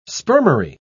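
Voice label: "spermary", British English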